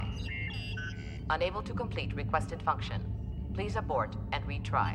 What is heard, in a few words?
abort and retry, computer voice